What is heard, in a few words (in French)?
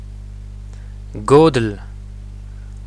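poche godell, apprenons le breton ensemble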